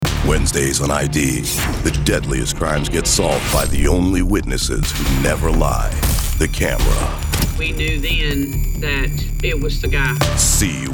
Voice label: Network Promo